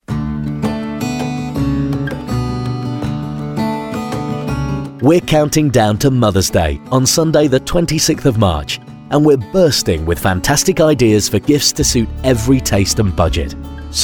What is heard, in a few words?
mothers day male